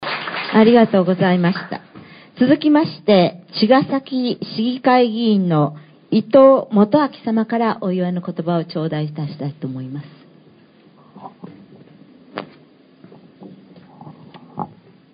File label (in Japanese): 司会; rakuseishiki